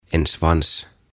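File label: "En svans", Swedish, "Häntä", Finnish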